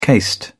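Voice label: "cased", British English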